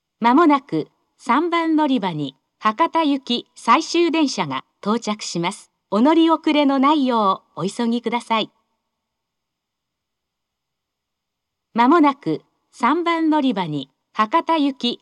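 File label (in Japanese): 最終予告-博多行, 3番のりば放送; 福岡市地下鉄 空港線・箱崎線 中洲川端駅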